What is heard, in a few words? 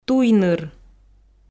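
"туйныр", Komi, female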